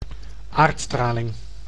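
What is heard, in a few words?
"aardstraling", Dutch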